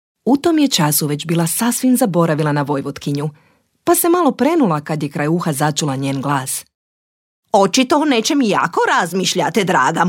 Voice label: croatian voice audiobook

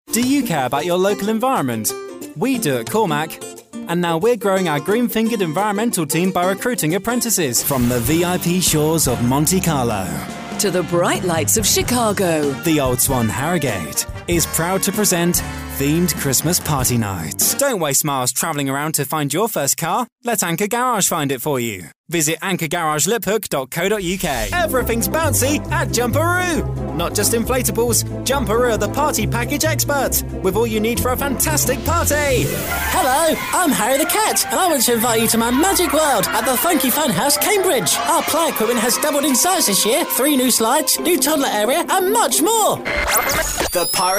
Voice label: commercial

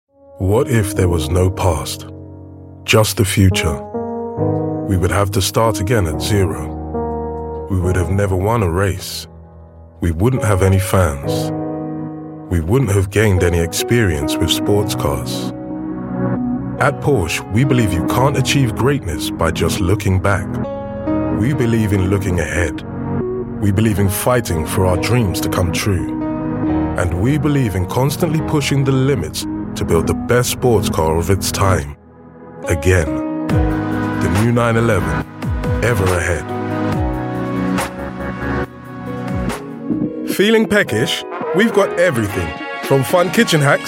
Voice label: multicultural voice commercial

